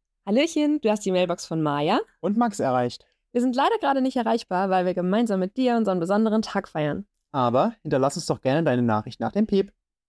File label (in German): Bandansage Hochzeit